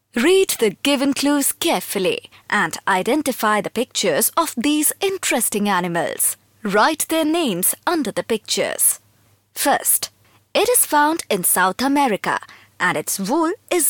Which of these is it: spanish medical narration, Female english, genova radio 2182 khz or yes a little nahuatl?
Female english